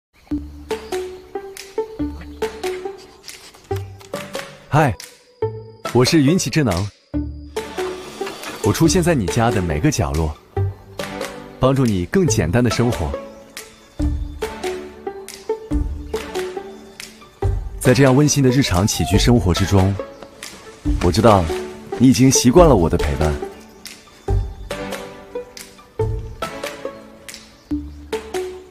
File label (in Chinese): 男 广告 年轻朴实; 云起智能